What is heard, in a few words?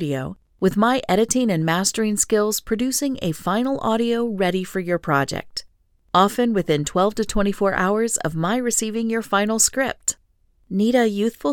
Voice Over; Located in Northeast Indiana